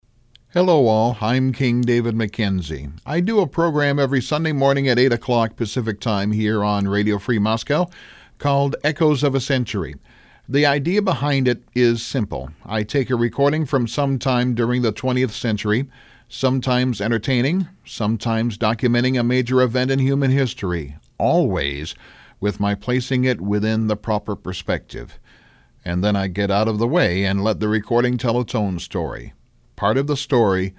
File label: promo; radio free moscow